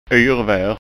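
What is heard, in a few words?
"yrvar", Scanian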